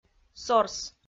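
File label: "сорс", Komi, female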